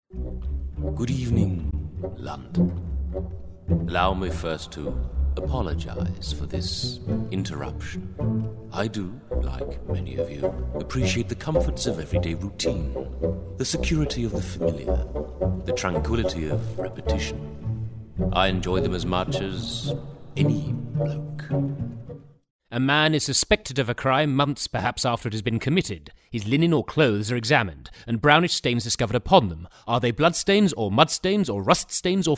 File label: Character voice reel